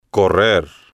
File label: "correr", Spanish